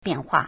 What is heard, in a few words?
"bian4hua4", Chinese